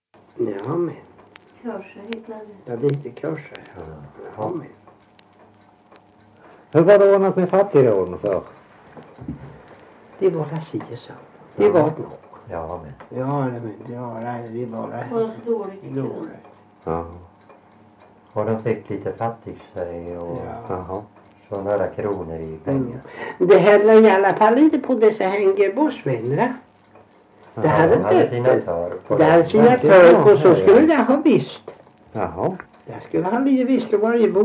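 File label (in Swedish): Värö Halland, Svenska sagor dialekter; Folkminnen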